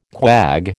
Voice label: "quag", American

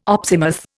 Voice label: "opsimath", Indian

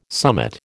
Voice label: "summit", American

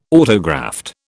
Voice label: "autograft", British

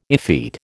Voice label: "effete", American

